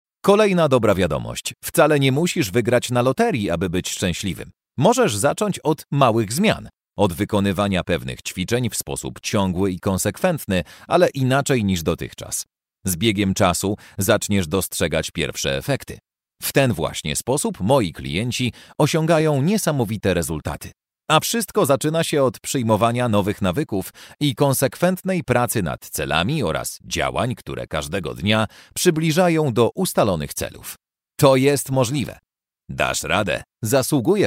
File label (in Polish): Lektor fragment prozy